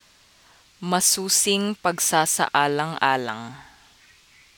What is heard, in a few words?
tagalog